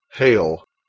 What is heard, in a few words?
"heall", Anglo Saxon